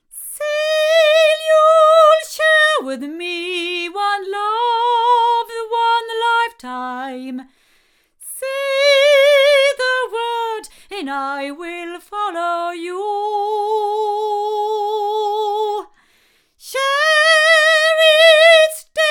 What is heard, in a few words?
female